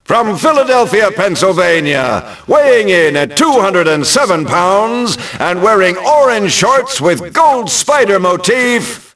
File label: announce, ENGLISH; Rocky; XBOX